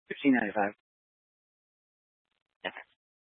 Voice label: Scottsdale Police, Simulcast